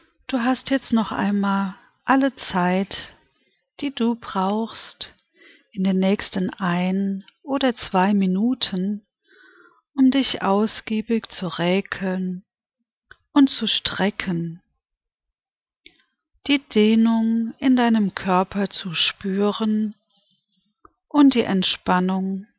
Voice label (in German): Meditation